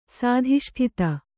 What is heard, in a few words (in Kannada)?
"ಸಾಧಿಷ್ಠಿತ", Kannada